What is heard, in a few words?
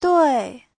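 "duì", Mandarin Chinese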